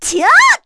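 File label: Vox Casting, Korean; Erze; kingsraid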